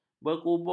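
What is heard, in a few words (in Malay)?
"berkubur", Malay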